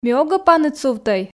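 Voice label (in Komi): ми огӧ паныд сувтӧй, Komi, female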